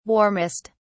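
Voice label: "warmest", English, British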